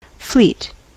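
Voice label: "fleet", US English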